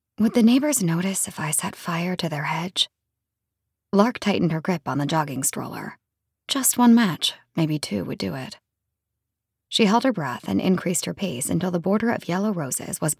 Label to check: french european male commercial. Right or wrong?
Wrong